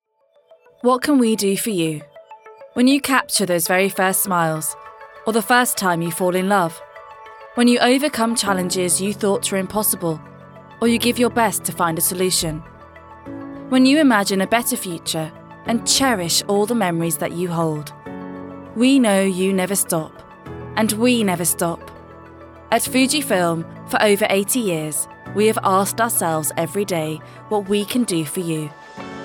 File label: PROMO; FUJIFILM 2023